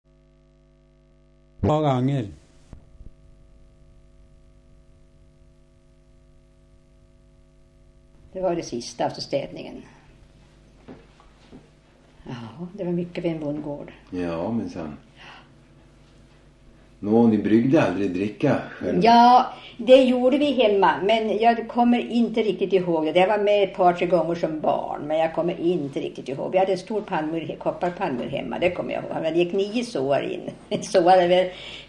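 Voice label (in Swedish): Dingtuna Västmanland, dialekter; Svenska sagor; Folkminnen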